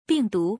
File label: "bingdu", Chinese